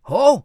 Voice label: "oops", Voices; Trafalgar; Quarry